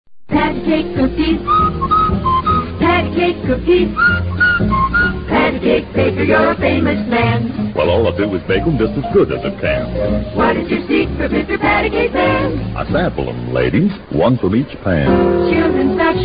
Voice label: Vintage Commercials; Patti Cake Cookies